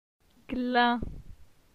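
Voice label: "Glin", French; phonetique; la syllabe in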